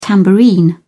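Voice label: "tambourine", British English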